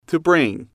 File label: "to bring", English